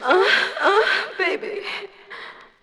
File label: "uh oh", baby